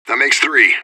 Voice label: radio bot kill count; fbihrt